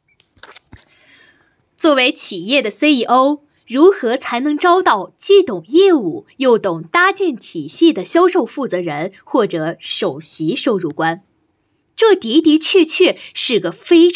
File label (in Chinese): 销售台词配音